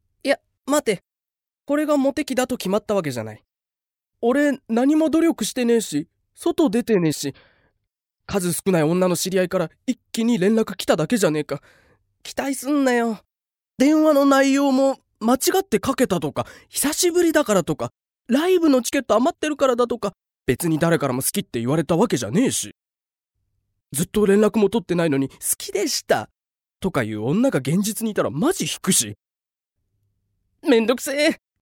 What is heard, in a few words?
male voice